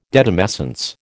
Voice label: "detumescence", American